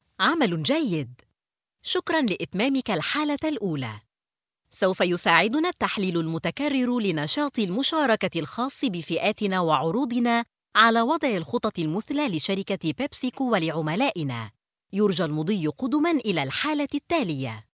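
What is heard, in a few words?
Arabic Egyptian, female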